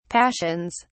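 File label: "passions", British English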